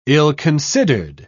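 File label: "ill-considered", English, British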